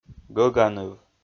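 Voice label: "гӧганыв", Komi, male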